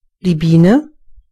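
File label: bee, German voices words; gcompris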